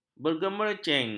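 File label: "bergemerincing", Malay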